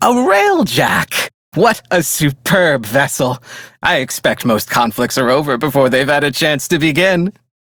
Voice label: Hired, Male; Dialog; Warframe; Railjack Crew; Perrin Sequence